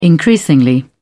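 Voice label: "increasingly", English, British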